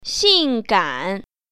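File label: "xing4gan3", Mandarin Chinese Tone Pair Drills; 性感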